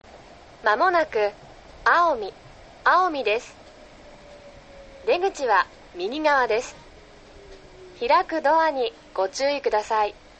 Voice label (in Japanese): テレコムセンター発車後, 車内放送; 青海到着前; yurikamome